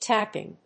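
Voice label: "tapping", English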